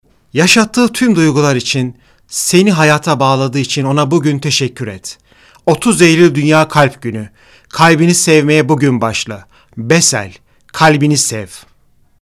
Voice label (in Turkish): REKLAM